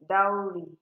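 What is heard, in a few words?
"dowry", Nigerian Pidgin, female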